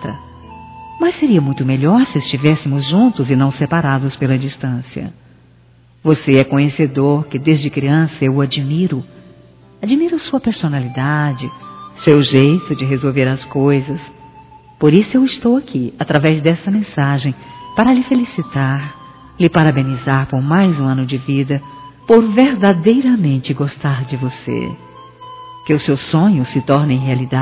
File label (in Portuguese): irmão, Aniversário Distante, Fem; Aniversários